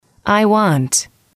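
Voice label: "I want", English, female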